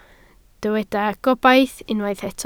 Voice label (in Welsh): "gobaith", Welsh